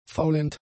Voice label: "faulend", German; adjectives